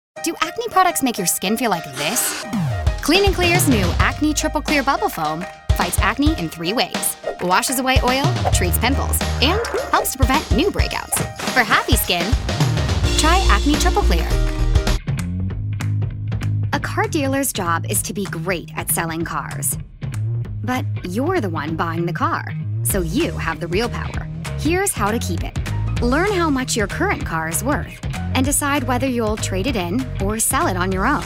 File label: Commercial